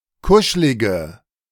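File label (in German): "kuschlige", German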